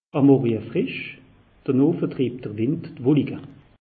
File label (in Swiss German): "morje frisch", alsace; meteo